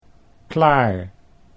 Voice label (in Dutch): "klaar", Dutch